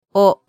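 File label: "o", Japanese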